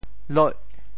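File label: "loih8", Teochew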